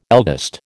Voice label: "eldest", American

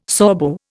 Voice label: "Sorbo", Indian

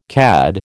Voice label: "CAD", American

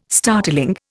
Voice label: "startling", Indian

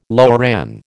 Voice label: "loran", American

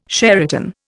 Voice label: "Sheraton", Indian

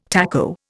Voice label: "taco", Indian

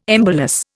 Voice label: "embolus", Indian

